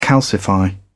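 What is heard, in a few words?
"calcify", English, British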